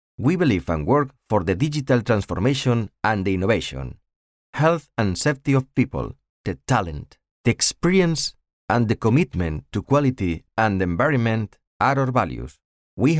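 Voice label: European Spanish accented English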